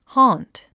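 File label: "haunt", English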